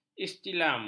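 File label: "istislam", Malay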